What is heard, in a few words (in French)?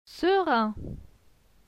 "serein", francais